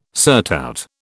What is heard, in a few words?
"surtout", British